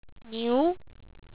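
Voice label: "niu2", Chinese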